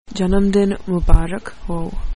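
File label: happy birthday hindi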